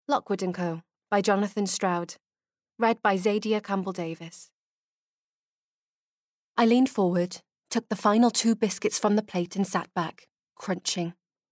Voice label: Bookread; LockwoodAndCo